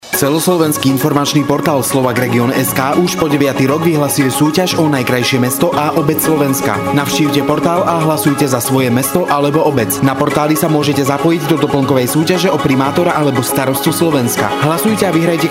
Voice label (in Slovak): radio reklamny spot; radio beta; slovakregion